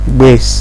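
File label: "gbes", Nigerian Pidgin, male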